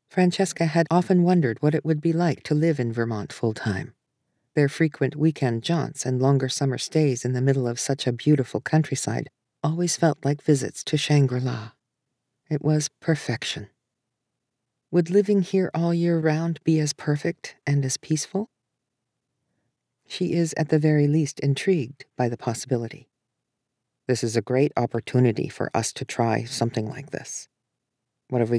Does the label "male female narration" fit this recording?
Yes